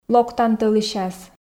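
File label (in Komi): локтан тӧлысяс, Komi, female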